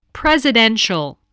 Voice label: "presidential", voice, spelling